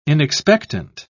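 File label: "inexpectant", British English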